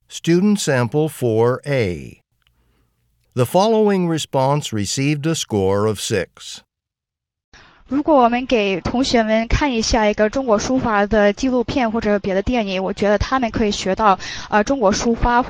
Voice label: chinese conversation